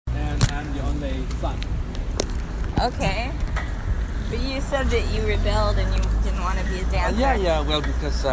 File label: Bali, Indonesia; asia